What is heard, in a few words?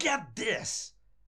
hit, voices angry pursuit; DanisRace